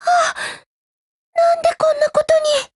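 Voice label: BREAK, Japanese; UMP9Mod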